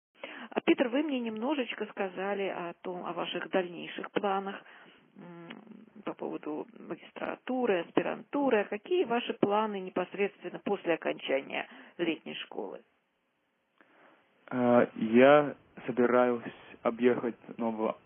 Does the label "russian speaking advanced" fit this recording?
Yes